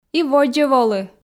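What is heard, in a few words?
"и водзӧ волы", Komi, female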